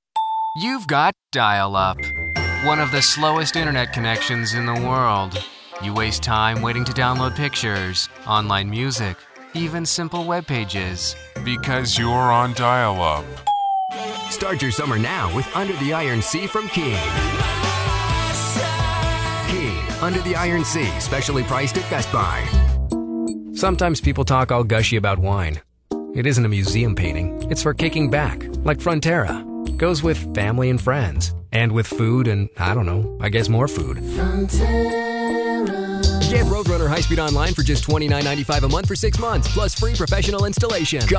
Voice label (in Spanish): comercial inglés USA